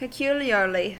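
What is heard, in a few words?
"peculiarly" English, US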